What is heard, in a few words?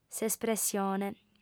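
"s'espressione", Sardinian, female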